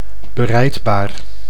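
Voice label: "berijdbaar", Dutch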